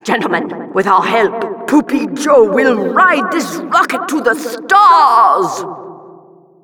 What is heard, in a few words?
Announcer start; monkeynaut